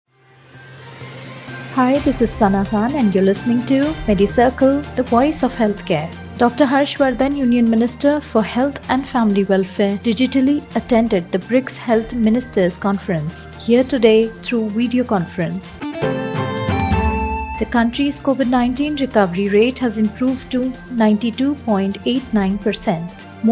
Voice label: english news